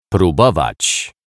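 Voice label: "probowac", Polish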